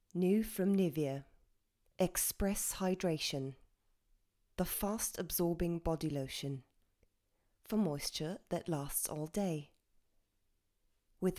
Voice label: englisch; Nivea

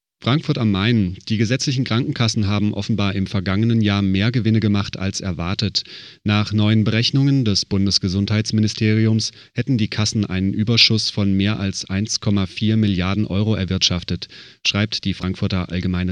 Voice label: Nachrichten

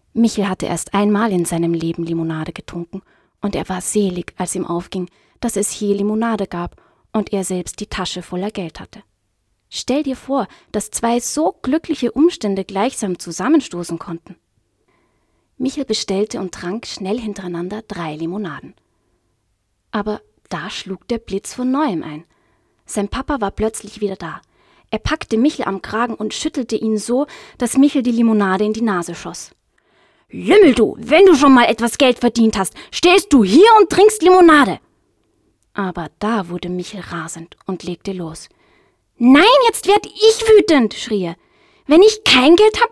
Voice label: hörbuch

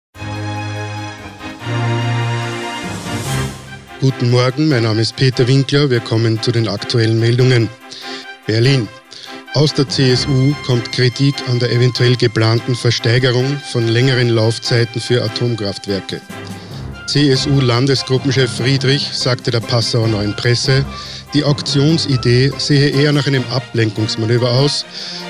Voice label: Nachrichten

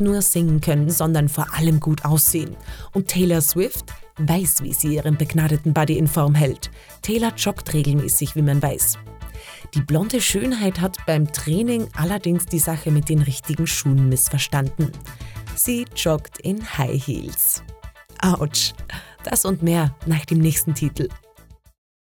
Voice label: news tratsch

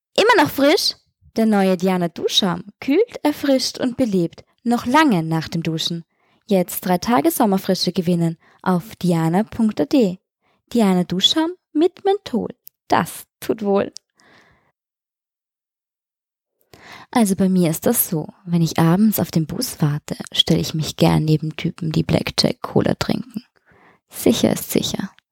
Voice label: werbung

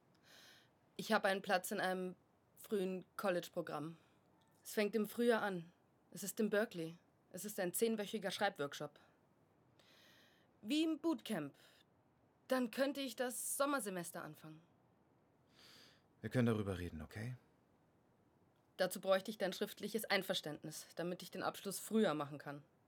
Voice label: Tochter Vater; Pariah

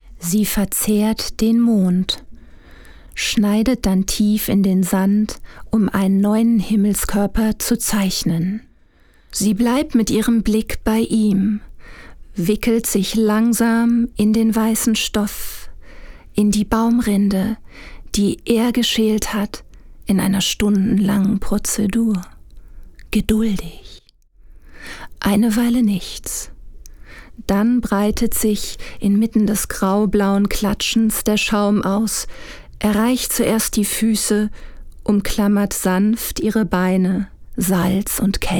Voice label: Hörbuch; Intervalle

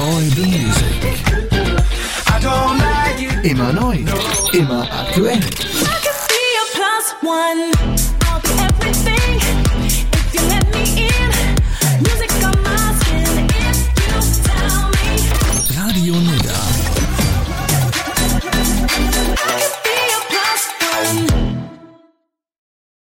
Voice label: Hörprobe Stationvoice Radio; Radio Nidda

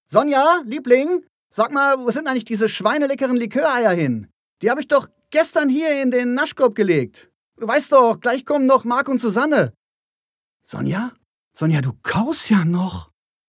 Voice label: Werbung

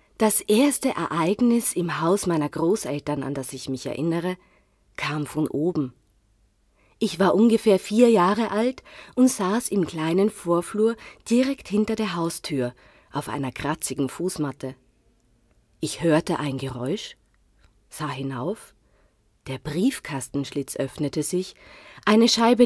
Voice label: Hörbuch; SprecherAkademie

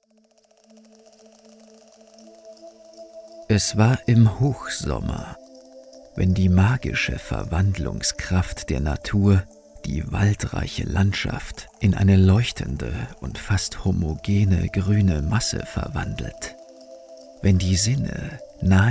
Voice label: Hörbuch; Das Grab

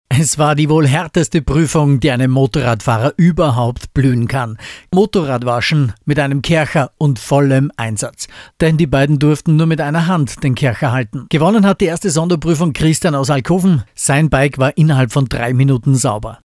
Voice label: Reportage Text

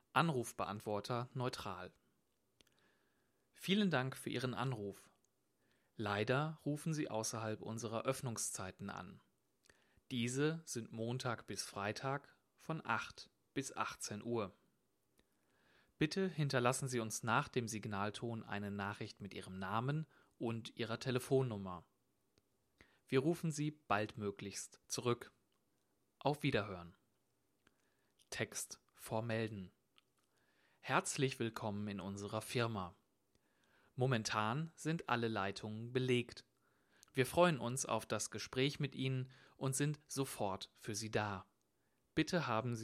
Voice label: telefonansagen

